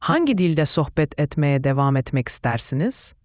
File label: question turkish